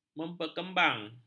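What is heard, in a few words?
"memperkembang", Malay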